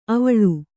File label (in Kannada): "ಅವಳೆ", Kannada